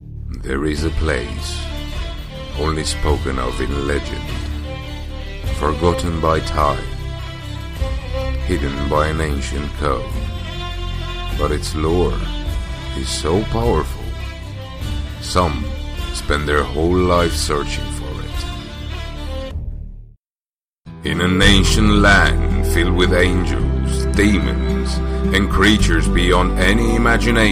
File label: English TV Promo and Movie Trailer